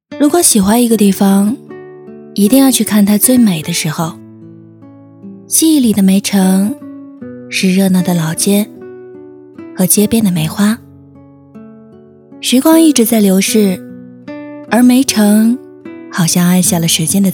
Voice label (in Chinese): 女 淡定自然; 抖音